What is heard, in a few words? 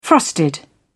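"frosted", British English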